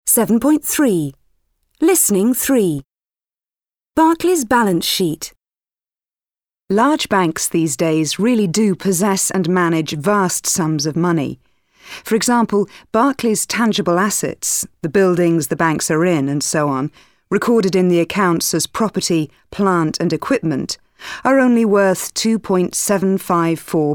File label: English platform, english e-learning platform; VUB